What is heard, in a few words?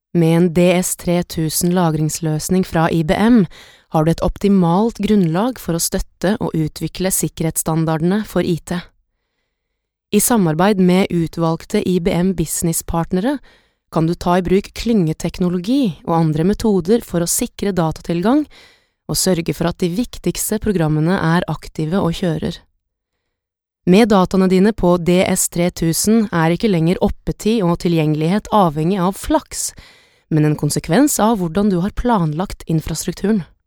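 corp presentation, norwegian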